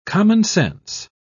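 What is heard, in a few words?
"commonsense", British English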